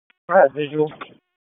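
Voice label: Police Northeast District Dispatch, Raleigh; wake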